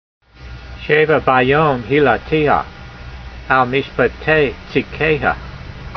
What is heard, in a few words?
voice, hebrew language